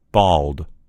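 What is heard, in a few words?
"bald", American English; gcompris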